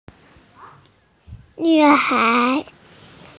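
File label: "girl", female; nv3hai2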